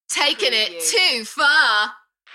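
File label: UK female, Enthusiastic, DJ Vocal; beanstalk-audio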